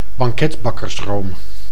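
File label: "banketbakkersroom", Dutch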